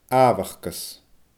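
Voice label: "aabhachdas", Scottish Gaelic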